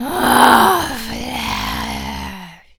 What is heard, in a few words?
Zombies Waitress Taunt; zps